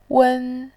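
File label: "wen", Mandarin Chinese, tone 1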